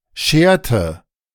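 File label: "schärte", German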